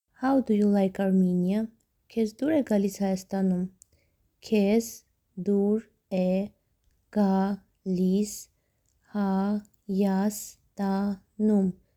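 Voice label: English How do you like Armenia Armenian Kez dur e galis Hayastanum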